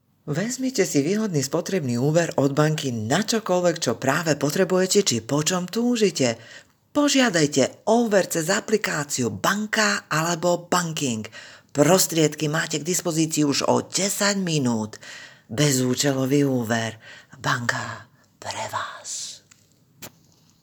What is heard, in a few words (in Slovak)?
Banka reklama, In Slovak Language